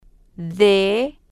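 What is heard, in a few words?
"d", spanish, female, slow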